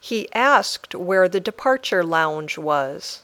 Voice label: Dictation